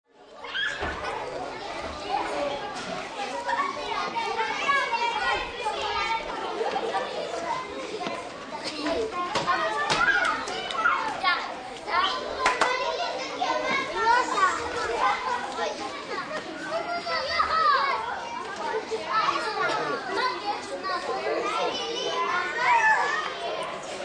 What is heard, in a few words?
Ambience Class, School Class, Bolivia, 15-20 children, kids talking, laughing, yelling; language not very discernible; human voice; VoiceBox